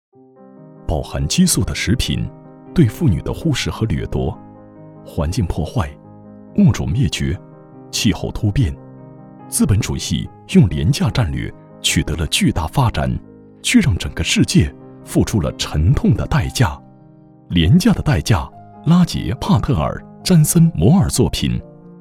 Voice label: 多媒体 有声读物, 男国; 廉价的代价

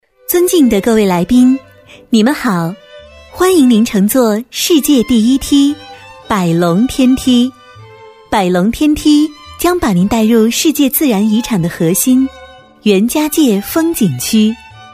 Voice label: 语音导游 甜美; 世界第一梯

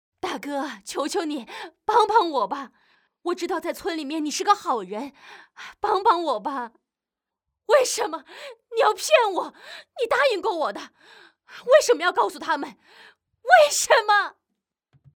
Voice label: 动画 角色 30岁女人

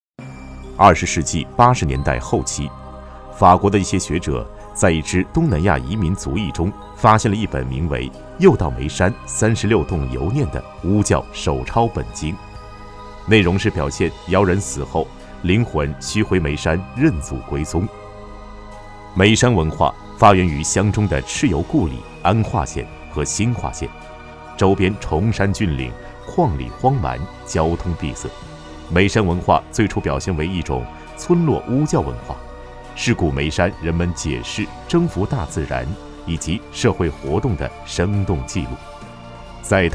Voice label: 纪录片 人文历史; 梅山

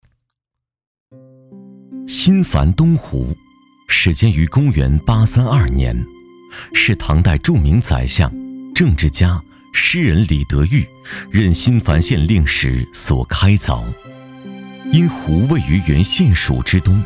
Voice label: 男 纪录片 人文历史 纪录片人文

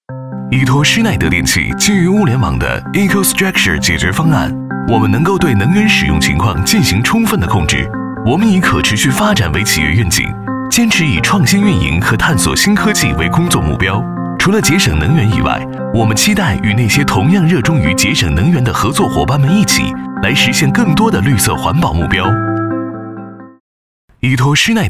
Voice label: 专题 产品 科技, 男国; 施耐德电器

